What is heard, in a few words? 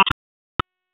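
Sheriff's Office Dispatch; WSO DISP 3; p25; wake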